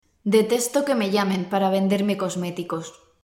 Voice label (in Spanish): espanol oral